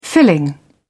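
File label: "filling", British English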